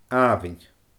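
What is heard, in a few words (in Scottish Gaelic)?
"aamhainn", Scottish Gaelic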